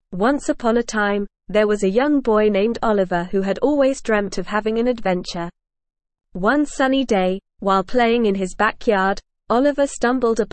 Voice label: ESL Short Stories for Kids Advanced NORMAL Reading; Olivers Ocean Adventure